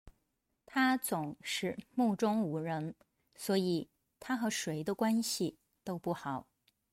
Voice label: denoised